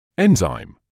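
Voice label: "enzyme", British English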